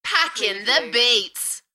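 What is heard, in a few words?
British female Packing the beats Enthusiastic; beanstalk audio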